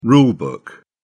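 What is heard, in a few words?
"rule book", English, British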